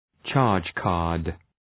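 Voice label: "charge card", English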